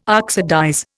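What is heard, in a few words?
"oxidize", Indian English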